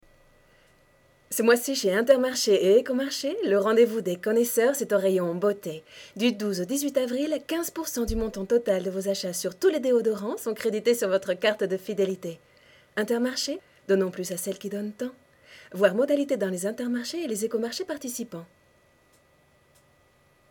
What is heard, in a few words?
SAMPLE ADVERT; TOTAL